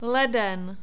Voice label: "leden", Czech